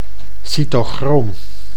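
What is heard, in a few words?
"cytochroom", Dutch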